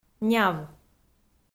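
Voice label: "няв", Komi, female; kpv audio dict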